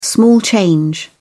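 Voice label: "small change", English, British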